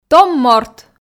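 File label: "том морт", Komi, female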